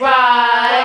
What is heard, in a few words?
"right" female